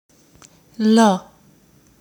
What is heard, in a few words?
"lo", Spanish; how to learn Spanish